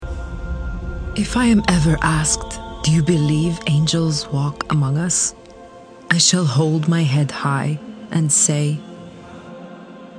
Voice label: english international documentaries; British; voice actor